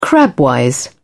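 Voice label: "crabwise", British English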